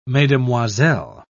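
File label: "mesdemoiselles", British English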